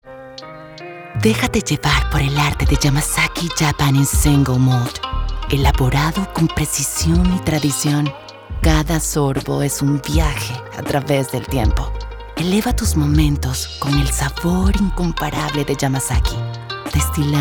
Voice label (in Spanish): misterioso sensual